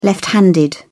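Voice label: "left handed", British English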